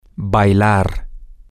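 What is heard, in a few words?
male, spanish; to Dance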